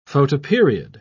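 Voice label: "photoperiod", British English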